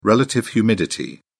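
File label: "relative humidity", British English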